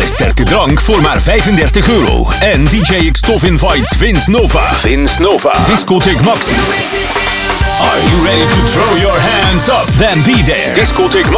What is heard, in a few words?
party commercial; maxxies